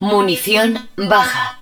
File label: N HUD Ammunition Low, Spanish